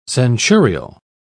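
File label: "centurial", British English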